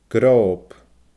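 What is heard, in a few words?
"groob", Scottish Gaelic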